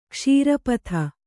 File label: "ಕ್ಷೀರಪಥ", kannada